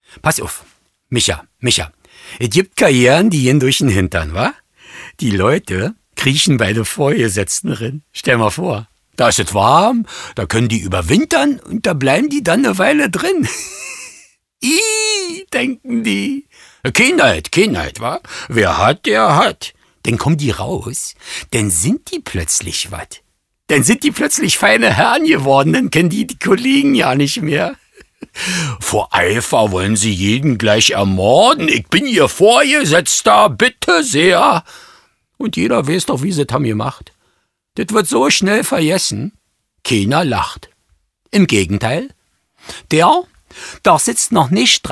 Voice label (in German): Sprecherdemos Berlinerisch und Saechsisch; LOFT VOICES